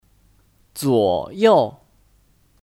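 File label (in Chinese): "左右", Mandarin Chinese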